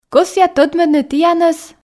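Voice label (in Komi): кӧсъя тӧдмӧдны тіянӧс, Komi, female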